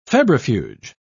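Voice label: "febrifuge", British English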